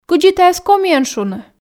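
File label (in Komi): кыдзи тайӧс комиӧн шуны, Komi, female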